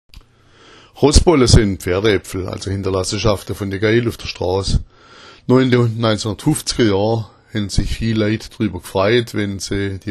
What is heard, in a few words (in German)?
rossbolla, schwaebisch